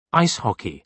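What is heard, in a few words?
"ice hockey", British English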